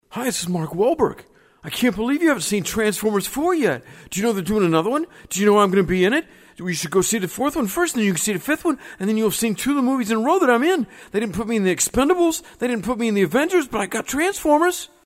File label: Character; Mark Wahlburg